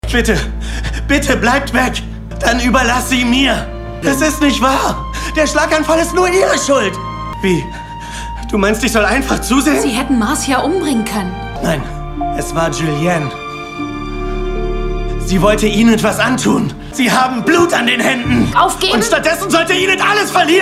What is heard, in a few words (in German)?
Verzweifelte Wut